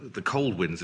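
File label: the colD winds, Standard Southern British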